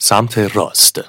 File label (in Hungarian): "jobbra", gps